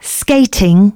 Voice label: female, announcements

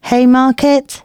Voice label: announcements, female

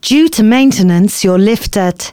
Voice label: announcements, female

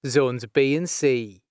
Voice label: Zones B+C, announcements male

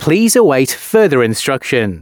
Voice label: announcements, male

